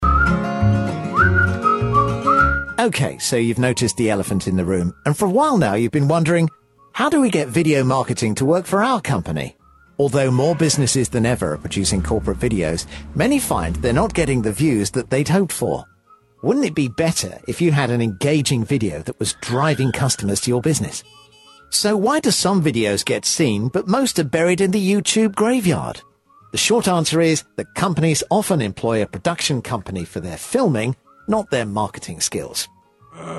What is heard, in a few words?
conversational